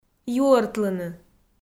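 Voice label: "юӧртлыны", Komi, female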